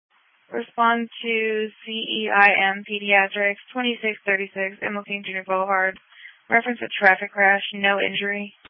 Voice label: Police Patrol 1 (Dispatch)